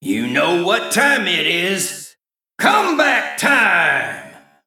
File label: Male Mech Behind